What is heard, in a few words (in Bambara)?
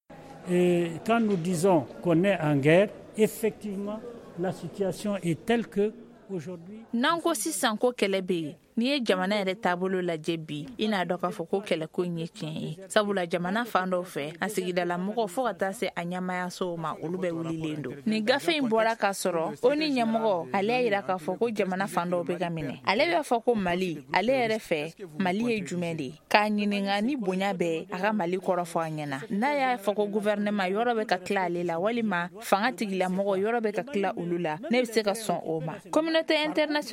magazine bamanankan